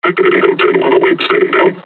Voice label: taunt, combine charger; Half Life Alyx